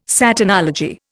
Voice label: "Satanology", Indian

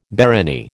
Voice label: "barony", American

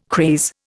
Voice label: "craze", Indian

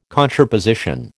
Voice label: "contraposition", American

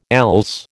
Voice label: "else", American

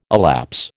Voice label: "elapse", American

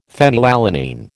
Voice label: "phenylalanine", American

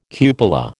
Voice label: "cupola", American

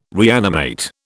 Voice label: "reanimate", British

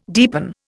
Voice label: "deepen", Indian

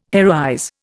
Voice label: "heroize", Indian

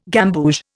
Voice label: "gamboge", Indian

